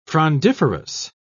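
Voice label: "frondiferous", British English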